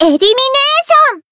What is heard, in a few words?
finish, jp